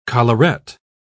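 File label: "collaret", British English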